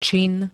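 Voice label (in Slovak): "čin", Slovak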